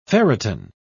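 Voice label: "ferritin", English, British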